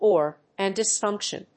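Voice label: "organ dysfunction", English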